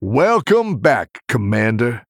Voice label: Male Human General

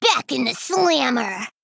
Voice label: Female Gnome Play

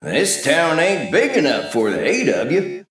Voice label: Male Mech

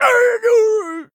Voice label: Male Dwarf Death; Brann Bronzebeard

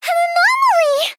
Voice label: Female Gnome Attack

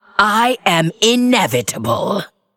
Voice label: Female Undead Boss Idle; Lich Tamsin

